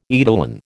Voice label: "eidolon", American